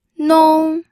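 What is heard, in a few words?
"nong", first tone, pinyin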